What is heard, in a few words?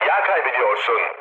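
damaged oil, mood low, Turkish; War Thunder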